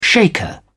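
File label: "shaker", British English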